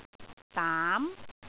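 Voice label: "daam2", Cantonese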